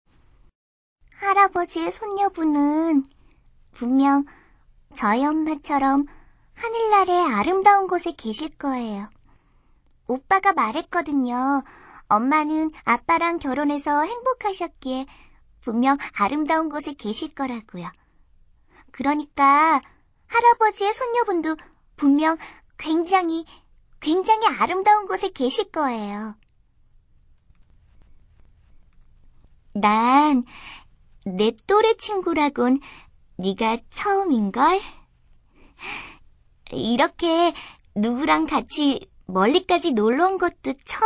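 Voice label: Korean female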